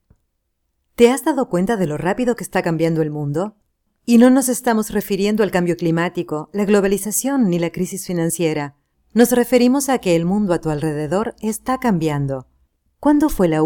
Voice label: Tablet Product Video Spanish VO

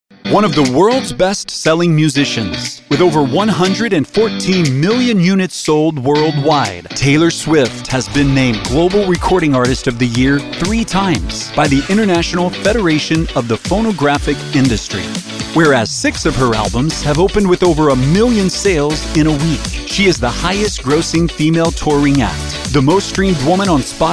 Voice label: NARRATION

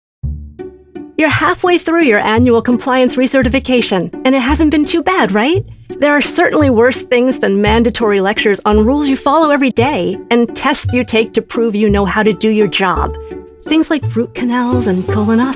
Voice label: Elearning

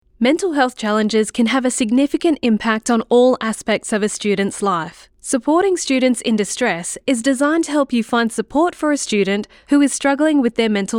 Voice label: Mental Health E-module; UNSW

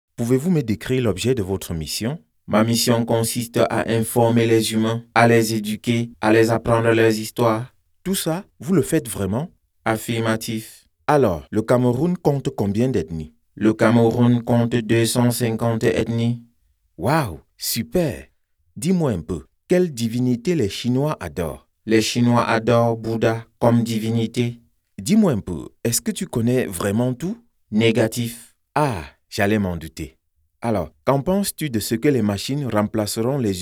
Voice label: robot voice

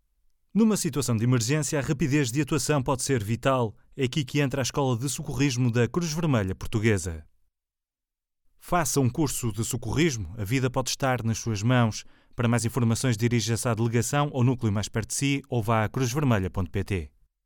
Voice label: Portuguese Ad sample

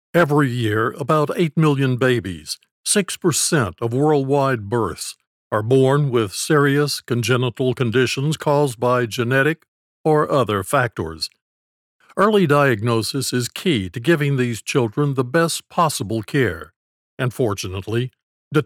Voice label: Medical Product Explainer Video

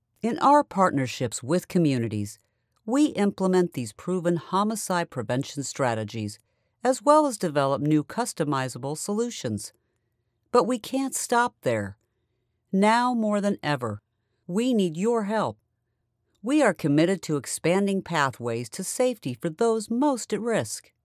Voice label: Prevention Fundraising Video

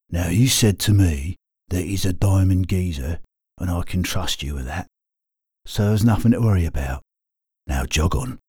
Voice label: Cockney

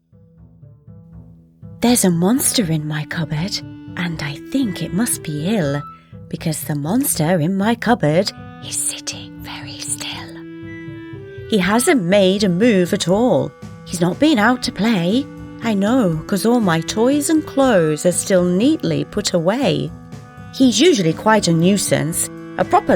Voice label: Children's Narration Poem

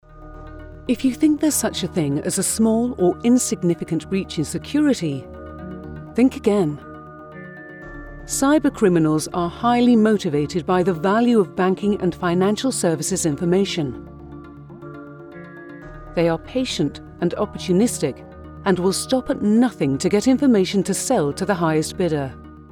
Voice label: Corporate RP

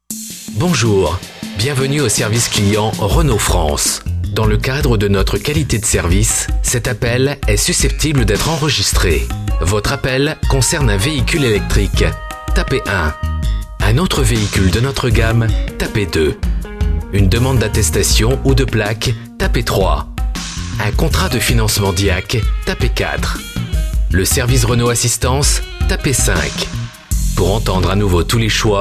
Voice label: French Voice; Renault

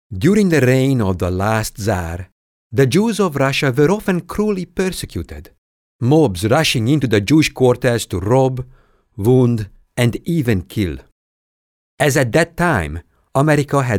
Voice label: character with Eastern European accent

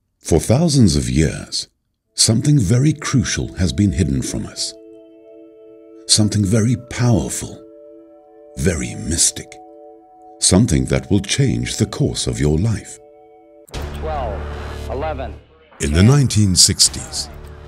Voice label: Documentary

